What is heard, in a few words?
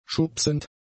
"schubsend", German; adjectives